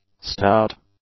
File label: "stout", English; adjectives